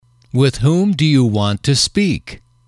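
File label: "with whom do you want to speak", English, male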